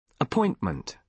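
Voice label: "appointment", British English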